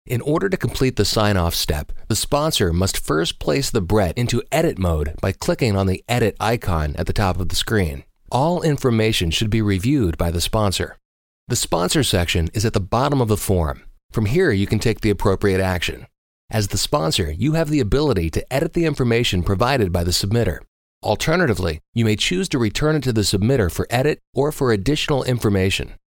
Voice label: video tutorial; Jugendfrei; NATIVE PROFISPRECHER